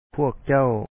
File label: "ພວກເຈົ້າ", Lao; you